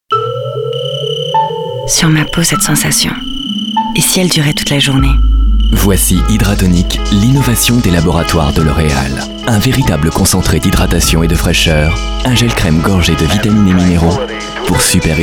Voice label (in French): elegant, élégant, rassurant, voix off publicité; L'OREAL